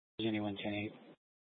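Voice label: police department dispatch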